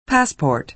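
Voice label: "passport", American English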